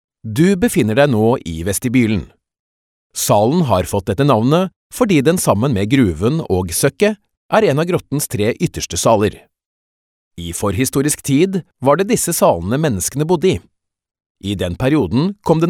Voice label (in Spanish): Masculino